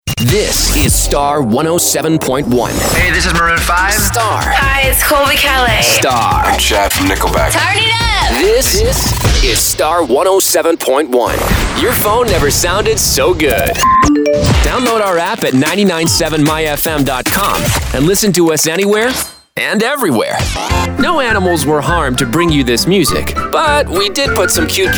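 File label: radio male hot adult contemporary; radio imaging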